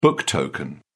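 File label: "book token", British English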